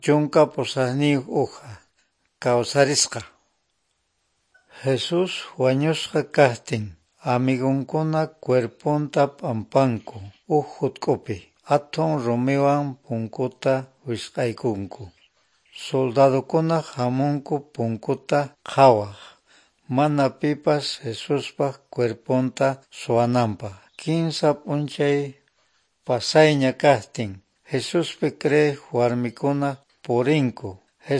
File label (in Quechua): good news quechua norte de bolivia apolo